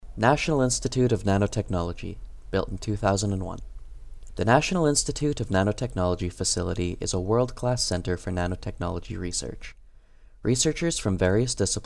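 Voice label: English; National Institute of Nanotechnology; NSO Tour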